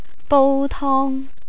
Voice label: "boutong", Cantonese; cooking